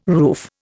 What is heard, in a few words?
"roof", Indian